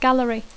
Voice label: "gallery", English

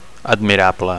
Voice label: "admirable", Catalan

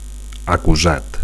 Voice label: "acusat", Catalan; (m / f) accused

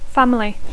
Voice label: "family", English; família

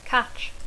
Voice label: "catch", English; verb tr conj (caught/caught); atrapar; agafar

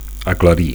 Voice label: "aclarir", Catalan; 1. to brighten; 2. to clear up; to clarify; to explain

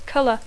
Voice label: "colour", English; (Br) color

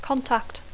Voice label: "contact", English

